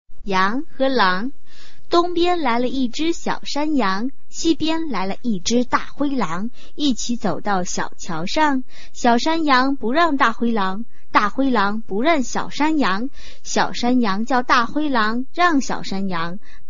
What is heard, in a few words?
chinese tongue twister